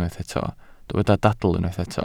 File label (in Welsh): "dadl" Welsh